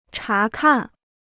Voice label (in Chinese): "查看", Chinese